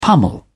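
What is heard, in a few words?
"pummel", British English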